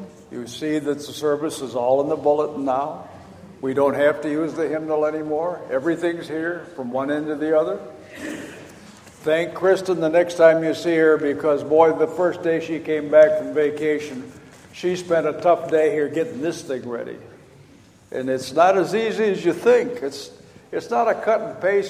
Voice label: Welcome and Announcements